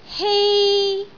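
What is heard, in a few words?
"hei1", Cantonese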